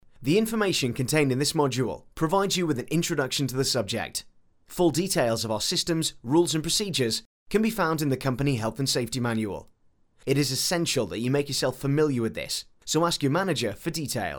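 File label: English, British, male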